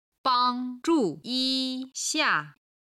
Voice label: "bang1zhu4yi1xia4", Chinese